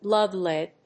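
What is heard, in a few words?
"blood lead", English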